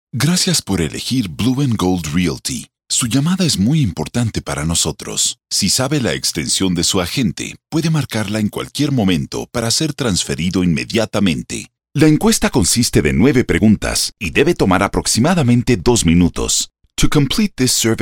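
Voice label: IVR Spanish, friendly, natural, warm, authoritative; Narration, Phone Hold/IVR, International Voiceover, Spanish (Latin and Castillian)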